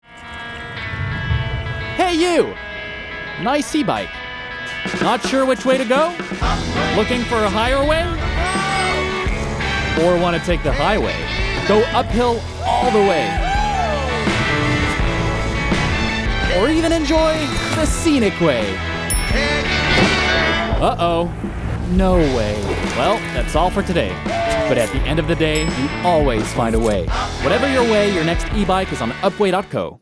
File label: Pub, US; Upway